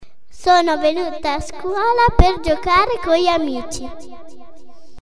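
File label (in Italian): La voce dentro a una grotta (effetto eco), Frase modificata; I bambini, con l'aiuto dell'insegnante, registrano e rielaborano la propria voce al comput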